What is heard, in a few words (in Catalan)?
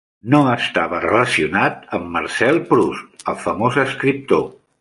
"Marcel", catala; mozilla voice